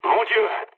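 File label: French trick mood high; War Thunder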